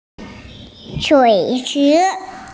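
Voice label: "pool", Mandarin Chinese, female; shui3chi2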